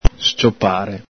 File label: "sciopare", Veneto